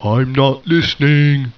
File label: annoyed; I'm not listening; humans; war2; peasant